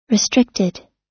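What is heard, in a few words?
"restricted", American English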